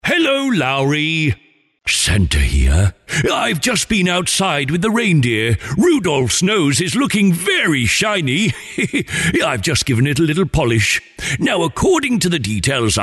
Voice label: Personalised Santa Message